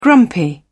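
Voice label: "grumpy", British English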